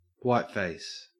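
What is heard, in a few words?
"whiteface" English, Australian; pronunciations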